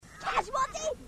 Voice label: casualty, grunts dialogue